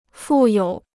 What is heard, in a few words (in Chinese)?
"负有", Chinese